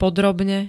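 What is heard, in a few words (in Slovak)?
"podrobne", Slovak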